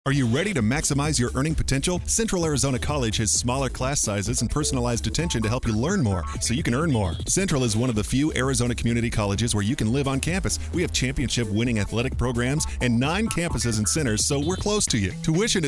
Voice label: radio ad